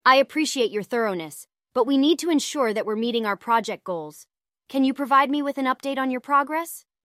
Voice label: synthesized audio